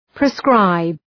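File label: "proscribe", English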